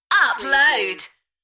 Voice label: UK female, "Upload", Enthusiastic; beanstalk audio

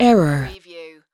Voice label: US female "error"; lynne publishing

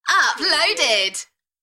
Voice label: UK female, "Uploaded", Enthusiastic; beanstalk audio

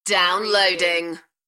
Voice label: UK female "Downloading"; beanstalk audio

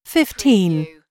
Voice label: US female phone prompt; lynne publishing

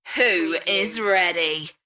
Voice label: UK female, Who is ready; beanstalk audio

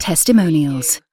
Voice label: UK female "testimonials"; lynne publishing

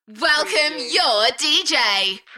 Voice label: UK female Welcome your dj Enthusiastic; beanstalk audio

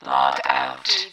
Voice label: Robot voice, log out; lynne publishing

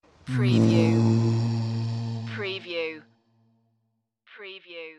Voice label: Ghostly No

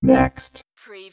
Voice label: Robot voice, "next"; lynne publishing

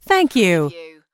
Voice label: US female phone prompt Thank You; lynne publishing

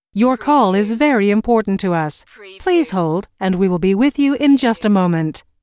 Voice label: US female phone prompt; Your call is very important to us - Please hold and we will be with you in ju

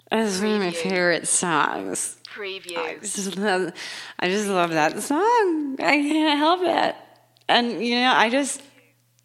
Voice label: Female Drunk Talk

